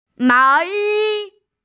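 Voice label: "mao2yi1", Mandarin Chinese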